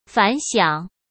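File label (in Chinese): "反响", Mandarin Chinese; chinese-flashcards; hsk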